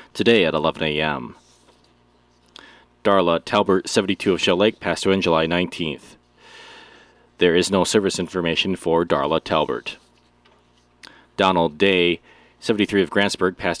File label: Funeral Announcements